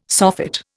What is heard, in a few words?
"soffit", Indian